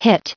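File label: "hit", US English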